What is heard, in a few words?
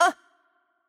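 Hurt Light, Polish; pl PL; bloom minigame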